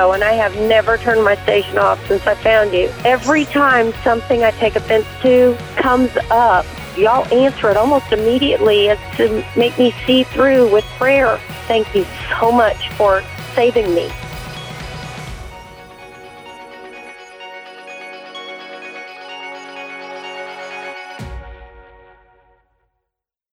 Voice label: Catholic Radio Testimonial